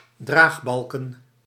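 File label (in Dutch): "draagbalken", Dutch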